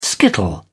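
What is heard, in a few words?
"skittle", British English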